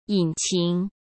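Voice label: "隐情", Mandarin Chinese; hsk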